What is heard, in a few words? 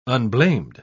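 "unblamed", British English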